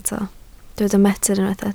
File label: "metr", Welsh